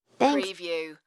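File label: Woman Thanks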